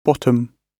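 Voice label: "bottom", British English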